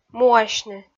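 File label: "муасьны" Komi, female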